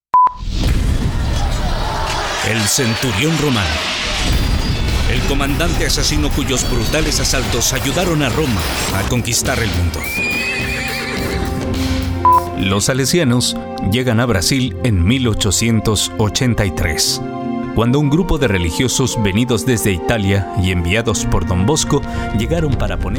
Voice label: narrator documentary